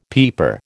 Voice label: "peeper", American

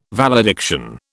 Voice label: "valediction", British

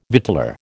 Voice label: "victualler", American

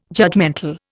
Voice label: "judgemental", Indian

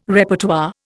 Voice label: "Repertoire", Indian

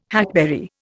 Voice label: "hackberry", Indian

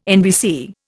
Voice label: "NBC", Indian

